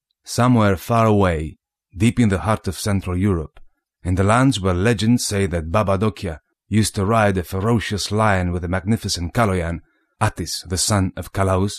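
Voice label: Romanian, English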